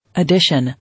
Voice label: "Addition", English

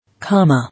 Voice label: "Comma", English

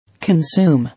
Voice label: "Consume", English

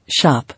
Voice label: "Shop", English

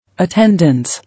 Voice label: "Attendence", English

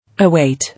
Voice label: "Await", English

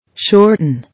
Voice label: "Shorten", English

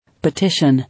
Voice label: "Petition", English

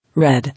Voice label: "Red", English

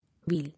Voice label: "Wheel", English

